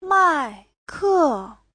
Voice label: "mai4ke4", chinese

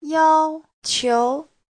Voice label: "yao1qiu2", Chinese; 要求; (danh từ) yêu cầu

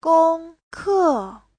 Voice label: "gong1 ke4", chinese; Giáo trình Hán ngữ

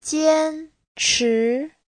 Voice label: "jian1chi2", chinese; Giáo trình Hán ngữ

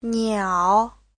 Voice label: "niao3", chinese; 鸟; (danh từ) chim; Giáo trình chuẩn HSK3

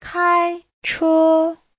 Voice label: "kai1che1", chinese; 开车 : lái xe; Giáo trình Hán ngữ

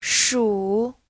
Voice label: "shu3", Chinese

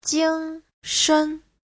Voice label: "jing1 shen5", chinese; 精神; tinh thần

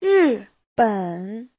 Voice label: "ri4ben3", Chinese

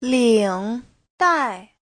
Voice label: "ling3 dai4", chinese; Giáo trình Hán ngữ

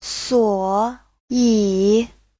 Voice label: "suo3yi3", Chinese

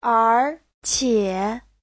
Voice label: "er2 qie3", chinese; 而且; (liên từ) ...mà còn; Giáo trình chuẩn HSK3

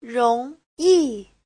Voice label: "rong2yi4", Chinese

